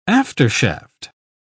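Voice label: "aftershaft", British English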